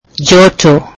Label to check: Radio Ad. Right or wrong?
Wrong